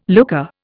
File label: "looker", Indian